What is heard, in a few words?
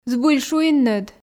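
"збыль шуинныд", Komi, female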